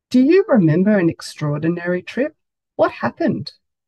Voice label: Do you remember an extraordinary trip, en au; trainstation